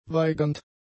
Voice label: "weigernd", German; adjectives

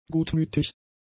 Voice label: "gutmütig", German; adjectives

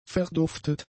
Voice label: "verduftet", German; adjectives

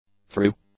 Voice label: "through", English; adjectives

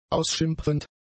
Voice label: "ausschimpfend", German; adjectives

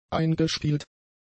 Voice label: "eingespielt", German; adjectives

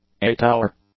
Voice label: "eight-hour", English; adjectives

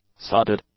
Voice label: "sodded", english; adjectives

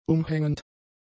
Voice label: "umhängend", German; adjectives

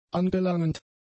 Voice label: "angelangend", German; adjectives

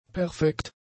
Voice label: "perfekt", German; adjectives

